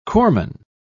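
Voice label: "corpsman", British English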